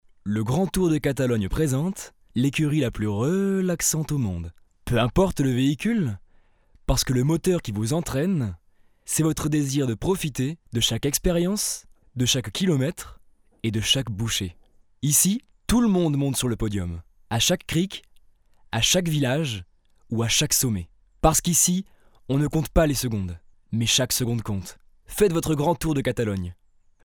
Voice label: locutor frances french voiceover

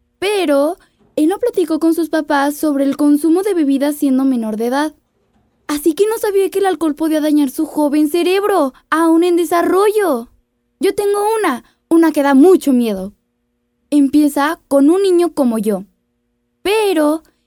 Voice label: latin american child voice over

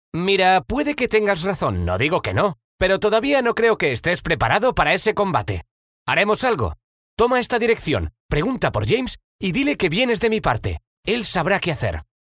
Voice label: locutor young spanish voiceover

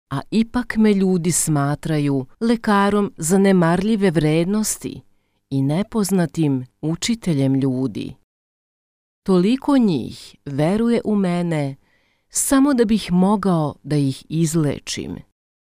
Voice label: locutora serbia serbian voiceover; Serbian female voice over; croatian serbian voiceovers

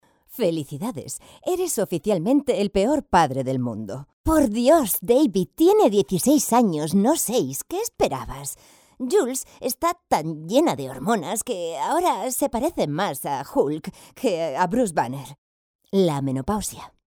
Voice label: locutora spanish voiceover

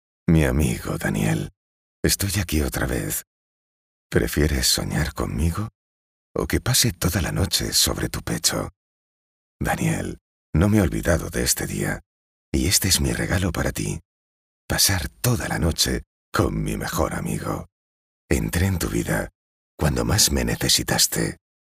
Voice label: locutor spanish voiceover

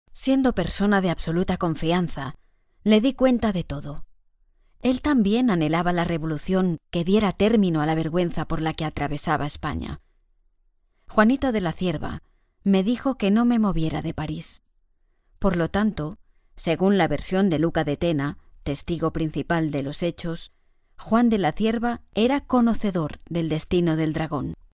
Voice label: locutora spanish voiceover

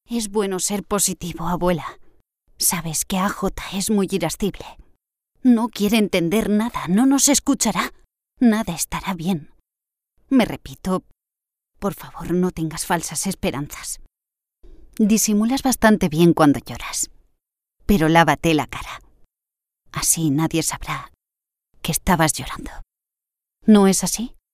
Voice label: locutora spanish voiceover

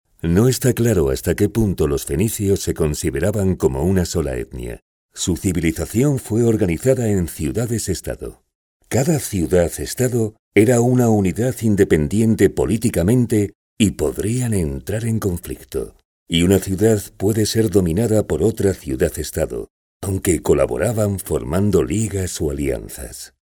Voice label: locutor spanish voiceover

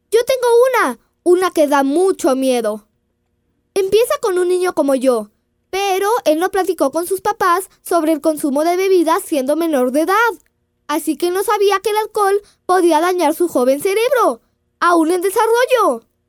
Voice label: latin american child voice over

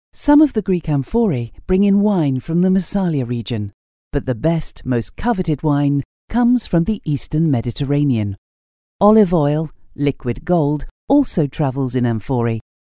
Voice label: locutora inglesa english voiceover; English voice over talent

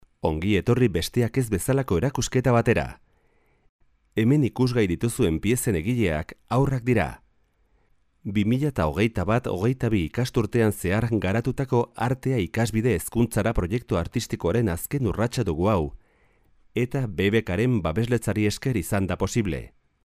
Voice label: locutor euskera basque voiceover; locutores vascos

